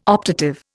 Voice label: "optative", Indian

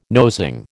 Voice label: "nosing", American

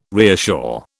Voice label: "reassure", British

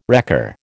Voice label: "wrecker", American

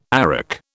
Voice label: "arak", British

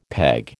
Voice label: "peg", American

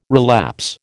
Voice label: "relapse", American

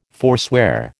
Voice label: "forswear", American

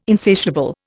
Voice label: "insatiable", Indian

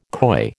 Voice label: "coy", American

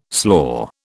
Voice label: "slaw", British

